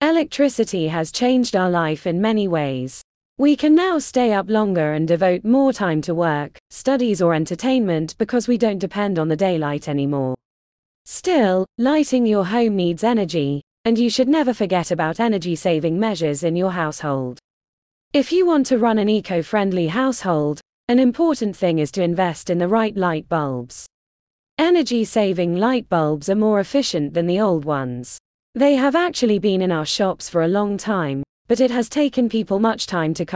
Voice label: woman's voice